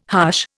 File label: "hush", Indian